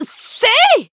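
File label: attack, Japanese; ctos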